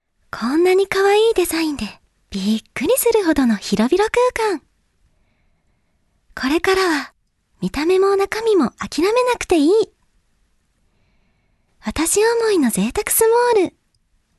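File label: Narration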